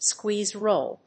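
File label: "squeeze roll", English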